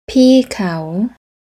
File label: "Pee Kao", Thai; desire requesting